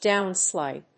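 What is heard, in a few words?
"downslide", English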